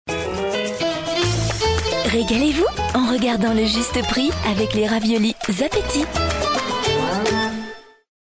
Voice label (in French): mutine complice; PARRAINAGES; Zapetti